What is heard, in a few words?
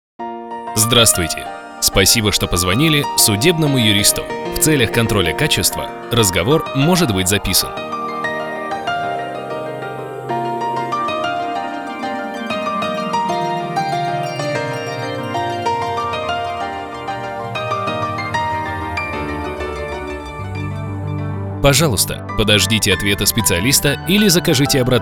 M IVR voice